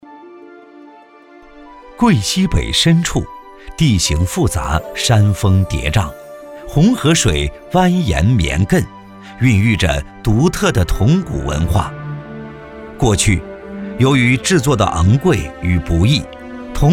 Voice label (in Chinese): 男, 纪录片; 壮乡筒噔